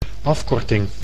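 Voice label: "afkorting", Dutch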